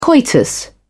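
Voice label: "coitus", British English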